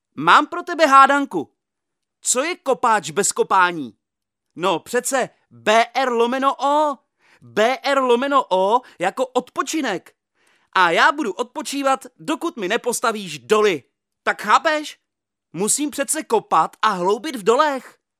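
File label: Male Czech drama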